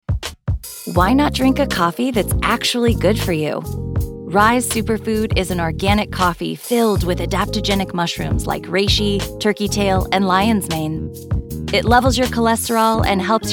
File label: women commercial; Confident and approachable Millennial-next-door. Expressive, richly textured, compelling, & sultry.